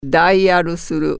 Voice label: dial, japanese